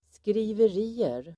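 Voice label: "skriverier", Swedish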